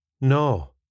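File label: "naw", Scottish English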